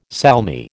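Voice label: "salmi", American English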